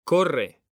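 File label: "CORRE", Spanish, male; mekanta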